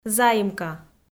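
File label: "заимка", Komi, female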